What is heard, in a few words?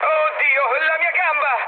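Italian damaged pilot mood med, speech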